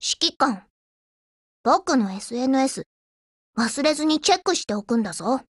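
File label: HELLO, Japanese; GF Alarm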